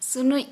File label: Santali Mucus